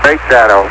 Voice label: chat; lander